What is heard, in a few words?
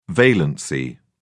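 "valency", British English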